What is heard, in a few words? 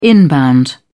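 "inbound", British English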